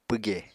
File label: "pwge", Apatani